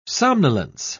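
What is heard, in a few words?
"somnolence", British English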